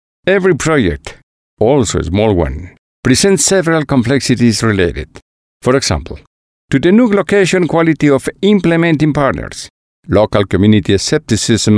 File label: english latin accent